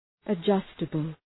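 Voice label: "adjustable", English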